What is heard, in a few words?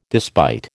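"despite", American English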